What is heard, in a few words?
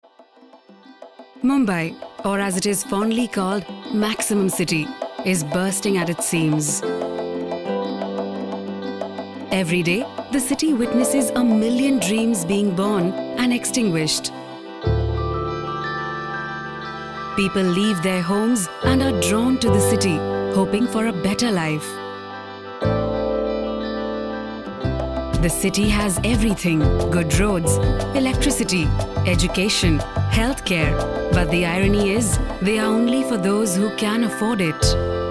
Documentary; PATH